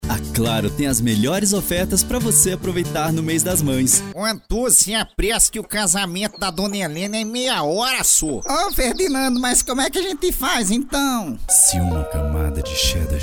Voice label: portuguese brazilian commercial